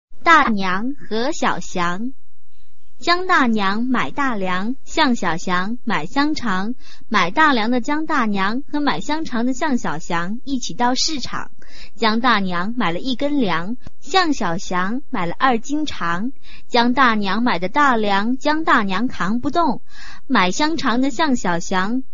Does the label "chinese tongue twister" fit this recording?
Yes